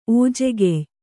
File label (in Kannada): "ಓಜೆಗೆಯ್", kannada